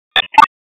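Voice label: Police Spec Ops Tac, radio; Raleigh; p25; wake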